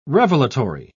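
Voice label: "revelatory", British English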